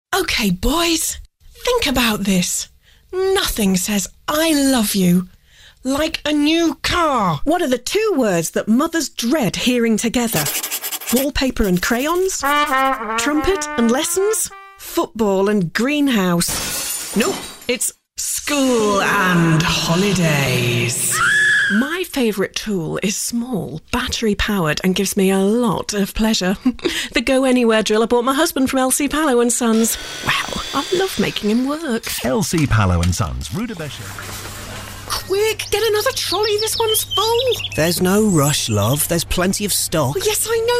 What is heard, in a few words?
acting commercials